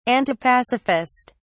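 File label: "antipacifist", British English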